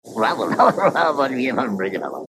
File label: hamburgular mumble